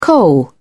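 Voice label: "coal", English, British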